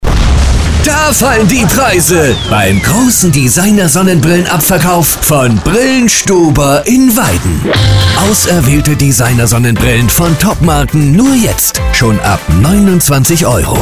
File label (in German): Werbung; Brillen Stober